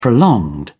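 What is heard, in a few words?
"prolonged", British English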